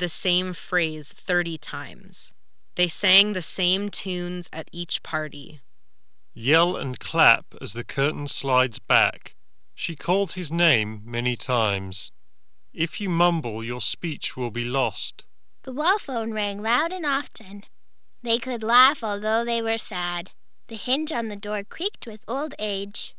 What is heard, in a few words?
Steelseries Arctis Nova Pro Wireless Ideal microphone sample